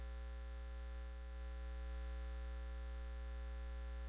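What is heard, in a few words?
"pencil", spoken word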